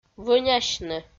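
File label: "вӧнясьны", Komi, female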